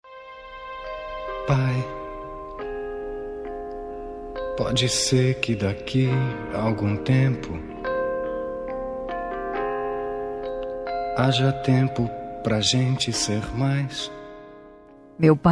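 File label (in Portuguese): dia dos pais female distante, voz feminina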